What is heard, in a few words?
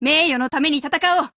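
GO ATTACK Japanese; BoysAntiTank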